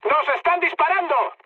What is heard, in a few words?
damaged aa, mood high, speech; War Thunder